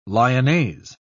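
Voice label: "lyonnaise", English, British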